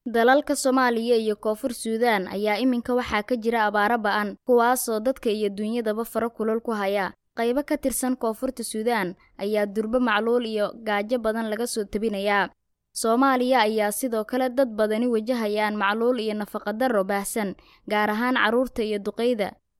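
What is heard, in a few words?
Somali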